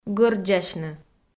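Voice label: "гӧрддзасьны", Komi, female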